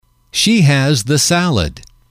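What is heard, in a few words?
she has the salad, male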